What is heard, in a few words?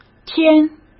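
"天" Mandarin Chinese; chinese-flashcards; hsk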